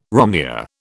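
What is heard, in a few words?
"romneya", British English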